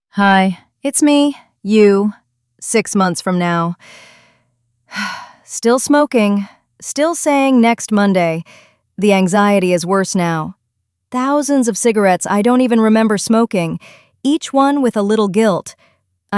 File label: future female, voicemails